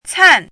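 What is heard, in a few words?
"can" fourth tone, pinyin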